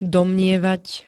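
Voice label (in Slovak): "domnievať", Slovak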